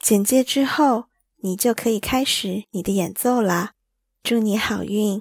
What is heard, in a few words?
performance with intro chinese